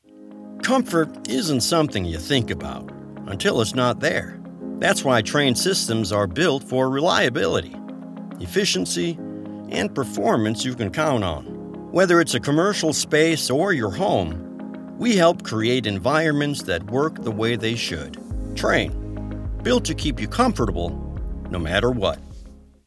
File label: corporate voiceover professional authentic; Trane